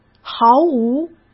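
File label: "毫无", Mandarin Chinese; chinese flashcards; hsk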